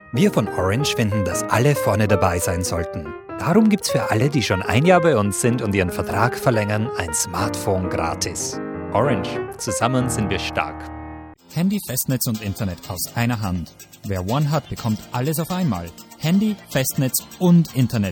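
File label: German Austrian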